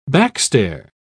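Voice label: "backstair", British English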